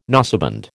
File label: "noseband", American